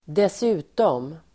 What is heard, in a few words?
"dessutom", Swedish